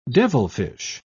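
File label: "devilfish", British English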